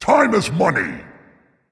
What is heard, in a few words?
taunt directed dragon; fafnir; chronos